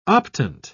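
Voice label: "optant", British English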